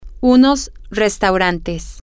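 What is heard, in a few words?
some restaurants, Spanish, female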